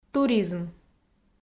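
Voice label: "туризм", Komi, female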